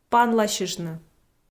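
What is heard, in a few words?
"панласьыштны", Komi, female; audio dict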